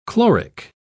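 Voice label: "chloric", English, British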